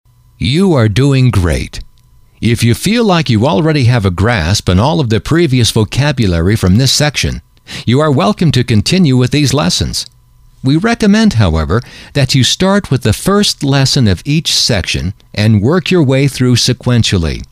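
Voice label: you Are Doing Great If You Feel Like You Already, male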